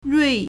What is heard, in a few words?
"rui4", Chinese